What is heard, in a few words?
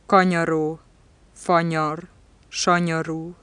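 palatal nasal medial, hungarian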